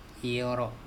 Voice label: "eOrO", andamanese; buds